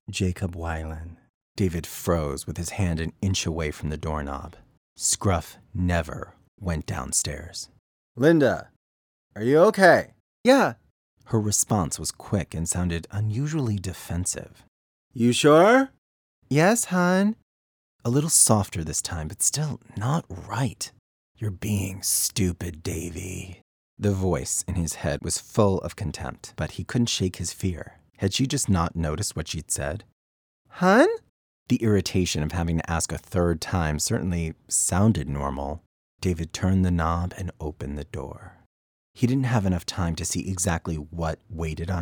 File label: Narration